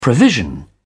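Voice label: "provision", British English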